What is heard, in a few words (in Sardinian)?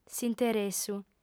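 "s'interessu", female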